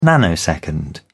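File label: "nanosecond", British English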